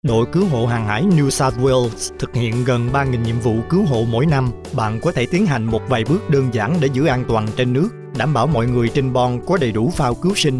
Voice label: Safety Vietnamese music